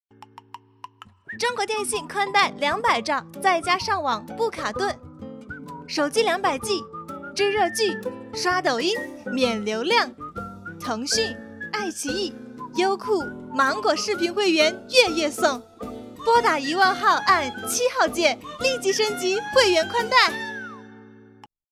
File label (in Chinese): 广告动感